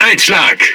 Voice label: Speech Hit Confirmation, DE; World Of Warships